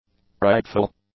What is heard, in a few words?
"prideful", english; adjectives